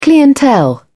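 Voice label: "clientele", British English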